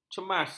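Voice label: "cemas", Malay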